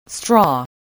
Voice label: "straw", spelling